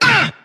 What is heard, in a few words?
"uh" fake; ooh ahh; uh um